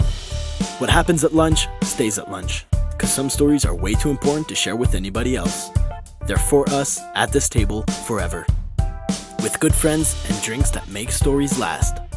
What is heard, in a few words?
BEER VOICE, parfaitement bilingue sans accent; Démo voix (anglais)